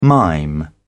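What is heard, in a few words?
"mime", British English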